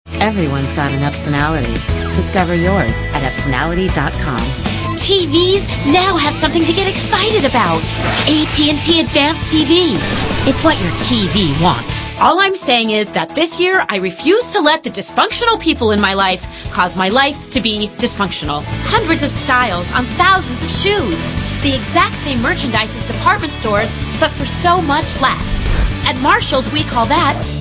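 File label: female, late 20s mid 30s, contemporary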